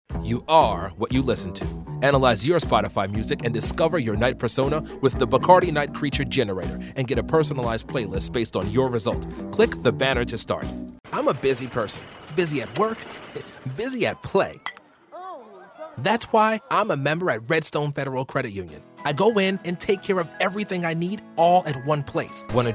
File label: men commercial; AfAm. Versatile, energetic, hip, and conversational with a touch of comedy.